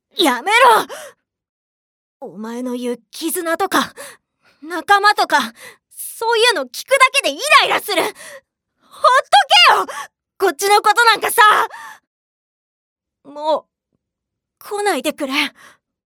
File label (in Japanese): 不良少女